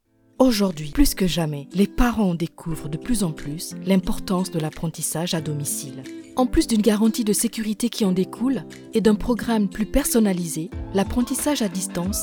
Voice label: Elearning Institute Nurturing, French, Soothing, Mum-Next-Door; Scholar Institute (French)